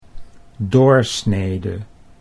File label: "doorsnede", Dutch